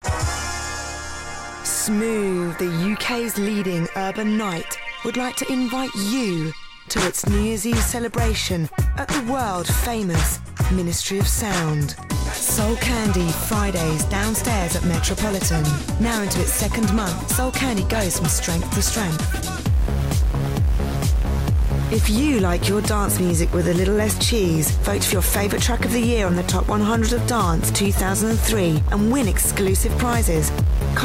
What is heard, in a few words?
Club Voice Reel